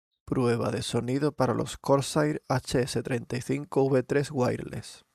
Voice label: Corsair HS35 V3 Wireless audio